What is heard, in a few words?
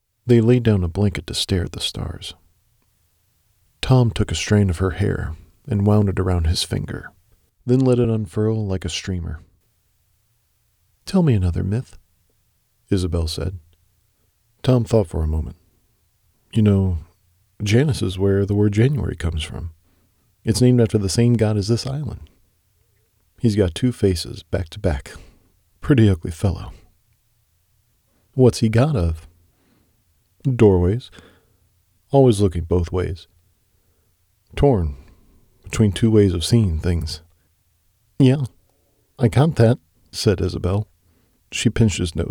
Fiction Romance